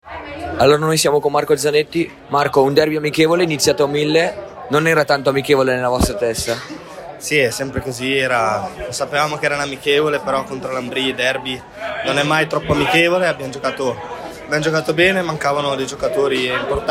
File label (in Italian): Intervista, radiocronaca partita hockey